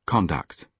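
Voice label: "conduct", British English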